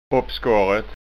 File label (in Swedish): "oppskorret", skanska